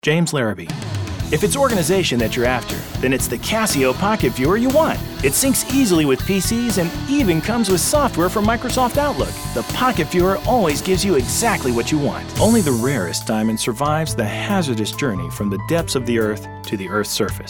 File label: commercial